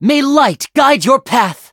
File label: levelup en; Cookie Run